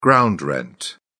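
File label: "ground rent", British English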